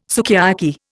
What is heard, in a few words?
"sukiyaki", Indian